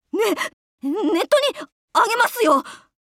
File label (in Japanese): touch breast normal, jp; chasers; bellona